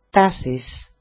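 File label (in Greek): "tasis", Greek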